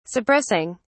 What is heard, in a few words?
"suppressing", en uk